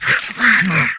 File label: humanoid male; Stellaris; humanoids